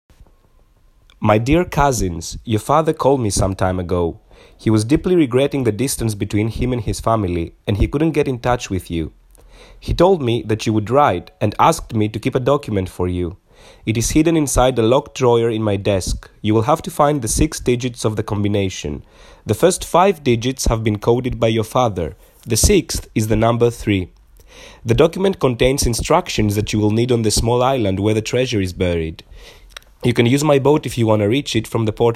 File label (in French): voix EN; What is the combination of the safe?; Patmos